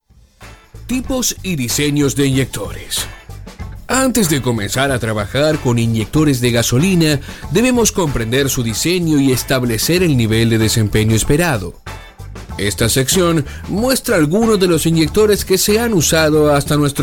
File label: Spanish Latin